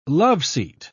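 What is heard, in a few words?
"loveseat", British English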